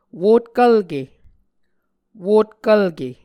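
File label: "wotkvlke", Muscogee word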